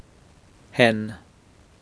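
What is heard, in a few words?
"hen", Surrey, male; ἕν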